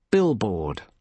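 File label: "billboard", British English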